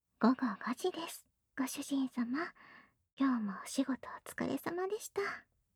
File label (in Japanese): 時報ボイス 午後5時です; 好感MAX従順奴隷メイド~甘トロ媚び媚び奉仕~